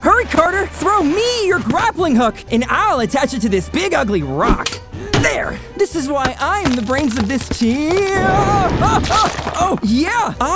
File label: Character Demo